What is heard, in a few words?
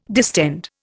"distend", Indian